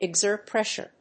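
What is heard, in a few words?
"exert pressure", English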